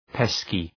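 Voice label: "pesky", English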